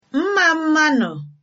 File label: cheers, igbo